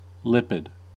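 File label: "lipid", US English; pronunciations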